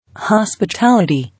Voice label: "Hospitality", English